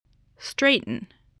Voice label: "straighten", spelling

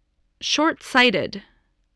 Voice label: "shortsighted", spelling

